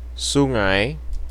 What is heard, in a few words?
Indonesian "sungai"